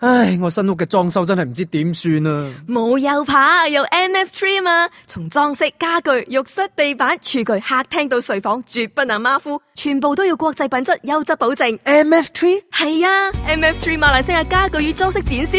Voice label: Cantonese